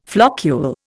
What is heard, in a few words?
"floccule", Indian